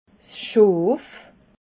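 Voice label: "schoof", Alsatian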